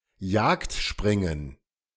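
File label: "Jagdspringen", German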